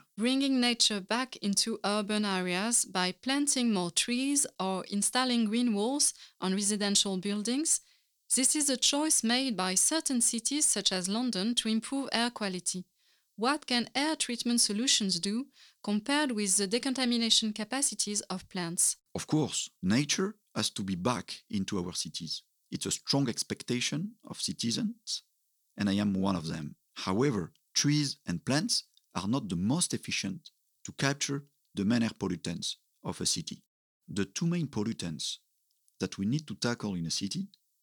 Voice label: podcast